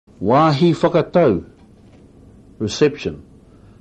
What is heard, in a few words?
"wahi whakatau", Maori